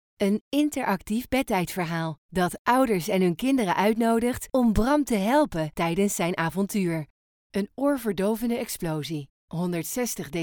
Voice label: E-learning